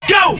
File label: "yo", Voice